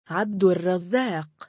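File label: male "3abdurrazzaaq", Arabic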